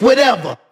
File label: "whatever" male; Voice; expletives